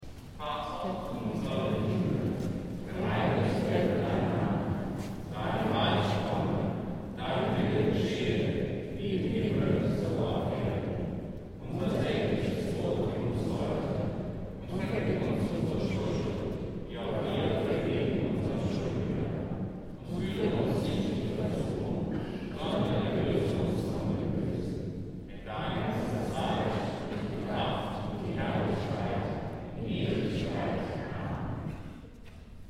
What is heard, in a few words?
mass ecumenical german lords prayer